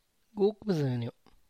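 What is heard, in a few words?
Tlacochahuaya Zapotec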